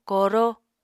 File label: "koro", Apatani